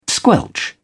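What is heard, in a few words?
"squelch", British English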